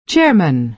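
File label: "chairman", American English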